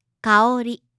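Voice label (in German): "Kaori", japanische Mädchennamen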